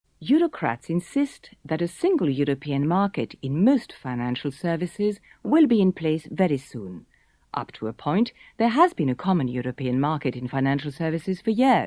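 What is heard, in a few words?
French English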